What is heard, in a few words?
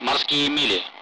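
Speech, Russian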